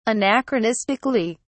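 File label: "anachronistically", British English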